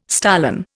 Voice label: "stolon", Indian

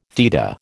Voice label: "theta", American

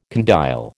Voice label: "condyle", American

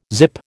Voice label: "zip", American

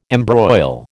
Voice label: "embroil", American

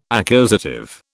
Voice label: "accusative", British

